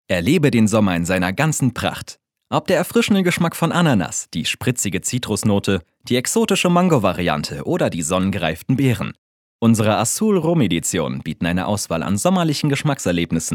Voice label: Commercial (Werbung), German; Azul Rum